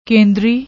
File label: "kendri", Punjabi; ਕੇਂਦਰੀ